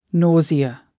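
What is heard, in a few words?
"nausea", English word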